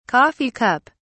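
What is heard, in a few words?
"coffee cup", English, British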